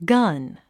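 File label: "gun", English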